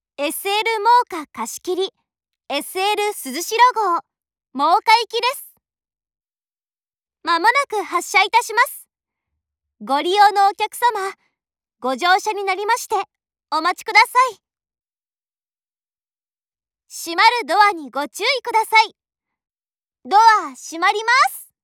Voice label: 七井站发车前 广播, 车内广播; 万冈铁路车内广播；真冈方向

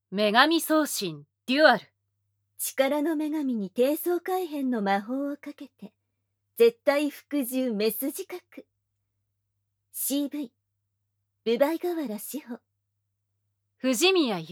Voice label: 标题 朗读; 无SE

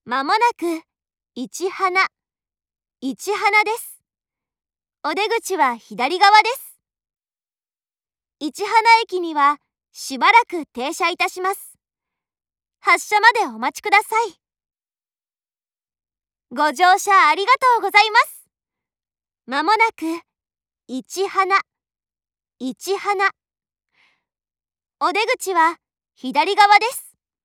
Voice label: 市塙站抵达前 广播, 车内广播; 万冈铁路车内广播；茂木方向

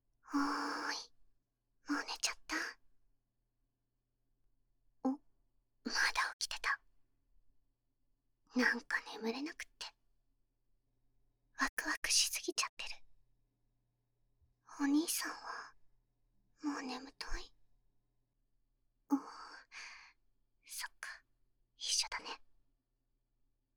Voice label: 低語手淫; 無SE; 夜行巴士

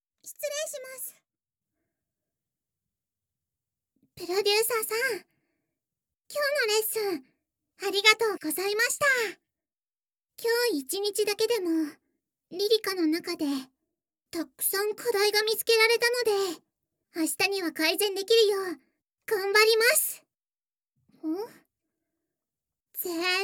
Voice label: 口交训练 无音效; 萝莉纯真偶像催眠快乐调教; リリムワークス; 第一次的口交训练

